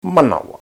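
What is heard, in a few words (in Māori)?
"manawa", Maori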